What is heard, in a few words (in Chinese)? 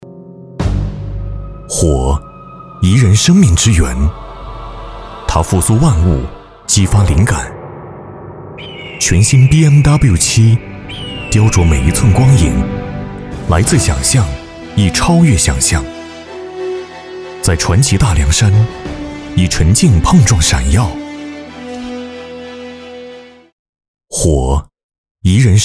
男 广告 高端大气有感情; 宝马汽车